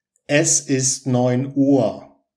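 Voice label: es ist neun uhr, German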